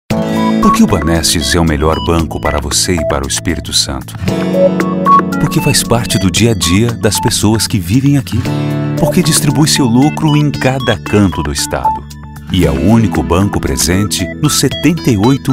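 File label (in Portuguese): Português - Brasileiro, Institucional, Voz Padrão - Grave, Masculino; BANESTES - Institucional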